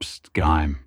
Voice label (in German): Pssst Geheim Lock Chime; Tesla